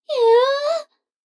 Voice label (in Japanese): 女の子 台詞, ASMR